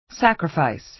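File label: "sacrifice", American English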